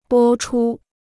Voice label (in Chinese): "播出", Chinese